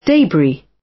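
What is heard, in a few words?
"debris", British English; Space, the planets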